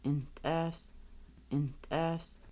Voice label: You cook, Kaska; arrive come go

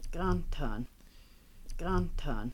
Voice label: You see, Kaska

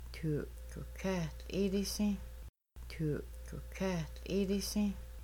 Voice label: You might pour water, Kaska; Making Bannock

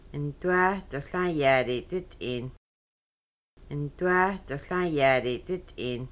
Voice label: No he doesnʼt have any birch wood, Kaska; rummaking

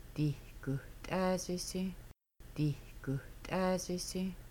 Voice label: Making Bannock They 2 might fry chicken, Kaska

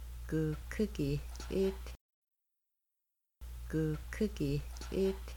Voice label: They 3 are pouring water, Kaska; Making Bannock

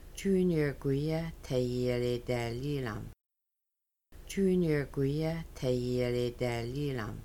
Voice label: Is Junior going to make drums with us, Kaska; rummaking

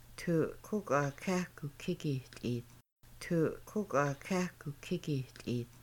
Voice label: They poured water into a cup, Kaska; Making Bannock

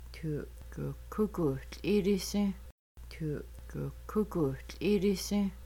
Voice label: They might pour water, Kaska; Making Bannock

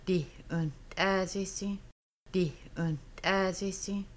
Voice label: You might fry chicken, Kaska; Making Bannock

